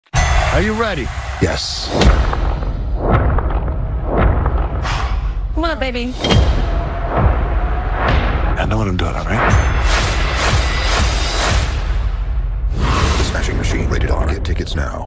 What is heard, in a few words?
TV Spots; The Smashing Machine